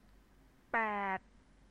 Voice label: "paed", Thai

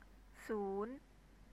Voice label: "soon", Thai

